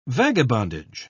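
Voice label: "vagabondage", British English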